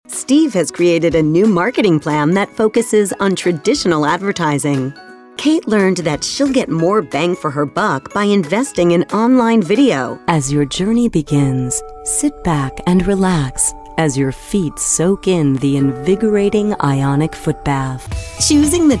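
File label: American English, female, narration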